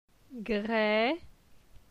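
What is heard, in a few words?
"Gre", French; phonetique; la syllabe e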